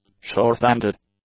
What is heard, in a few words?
"shorthanded", English; adjectives